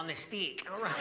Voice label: arguing with myself; jeff dunham; jose jalapeno

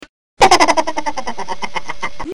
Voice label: chipmunk low

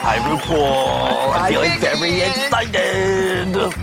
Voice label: feeling very excited

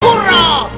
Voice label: burro donkey in spanish

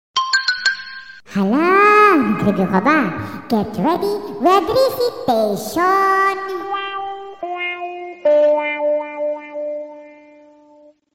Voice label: recitation

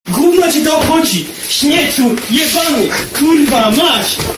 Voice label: angry polish kid

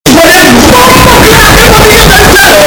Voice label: bomboclat loud

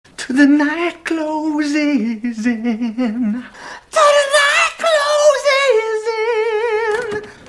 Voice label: chinese woman; happy gilmore

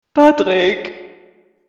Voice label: paatrick reverb

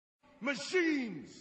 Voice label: yells machines; morpheus

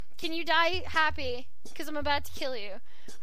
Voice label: viking lass happy

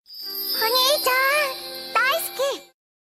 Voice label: anime; onii chan daisuki

